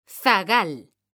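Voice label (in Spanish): "zagal", Spanish, female; mekanta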